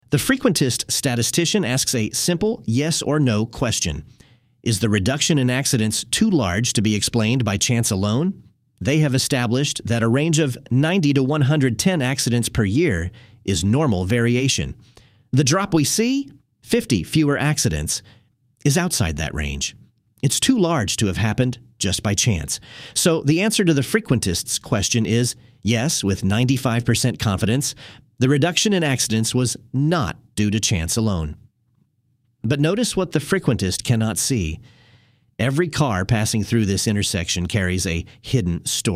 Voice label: narration; Bayesian Explainer; bayesian vs frequentist research